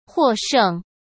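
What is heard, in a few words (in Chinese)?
"获胜", Mandarin Chinese; hsk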